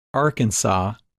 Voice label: "Arkansas", English Pronunciation

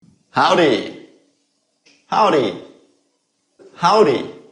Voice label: Howdy pronunciation

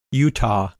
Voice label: "Utah", English pronunciation

